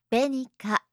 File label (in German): "benika", japanische maedchennamen